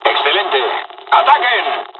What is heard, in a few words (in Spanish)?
dialogs spanish; War Thunder